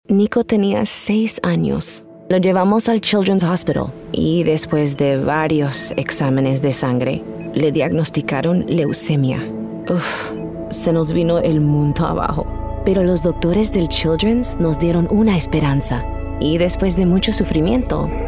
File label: Childrens Hospital Spanish Commercial Real Emotional